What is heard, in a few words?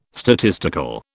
"statistical", British